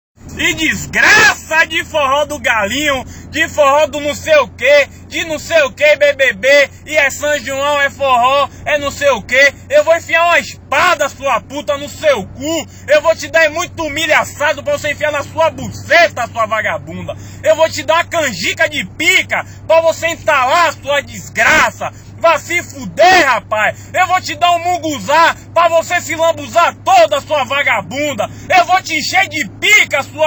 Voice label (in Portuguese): baiano desgraaca de forro